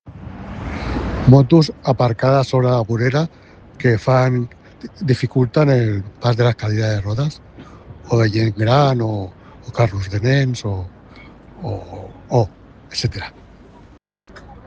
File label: Voice message